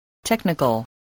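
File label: "technical", English word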